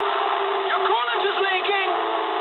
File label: eng damaged water mood low, speech eng; War Thunder